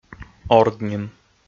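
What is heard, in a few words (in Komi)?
"ордним", Komi, male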